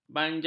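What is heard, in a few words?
"banjar", Malay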